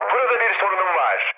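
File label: Turkish aircraft damaged, mood med, speech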